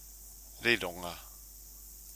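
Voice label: "rironga", Maori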